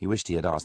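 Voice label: "he wished He Had asked", Standard Southern British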